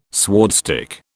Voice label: "swordstick", British